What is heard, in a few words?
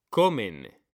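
"COMEN", Spanish; mekanta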